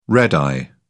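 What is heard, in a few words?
"red-eye", British English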